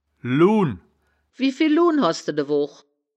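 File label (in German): "luhn", wittlicher woerterbuch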